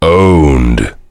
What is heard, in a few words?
heavy good job; tf; duke